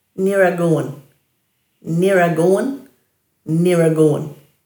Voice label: "niraguwin", Dhurga